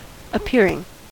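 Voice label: "appearing", US English; pronunciations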